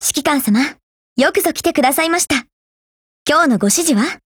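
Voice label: HELLO, Japanese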